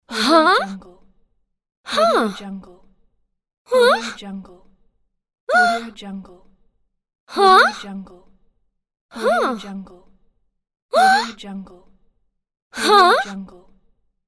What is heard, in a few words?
female saying huh